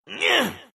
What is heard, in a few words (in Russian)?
Bat anger